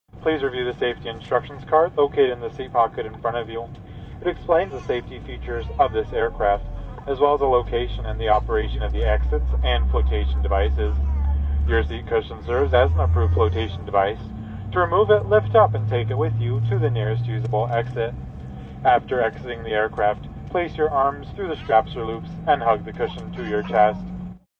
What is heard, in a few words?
Steward Seat Cushion, Steward announcement regarding seat cushions as flotat; Steward announcement regarding seat cushions as flotation devices on plane; Airplane; Stewardess Seat Cushion Announcement